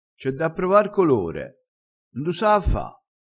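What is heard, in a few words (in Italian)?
"saafa", vocabolario dialettale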